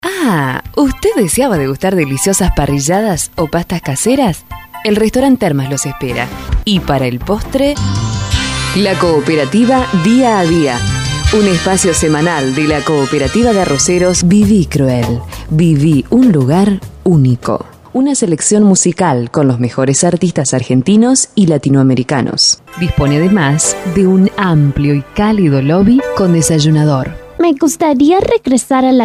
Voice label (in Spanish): locucion